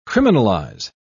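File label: "criminalise", British English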